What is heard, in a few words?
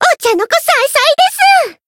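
win, Japanese; myungwha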